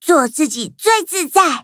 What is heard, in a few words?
upgrade, zh-hant; cookie run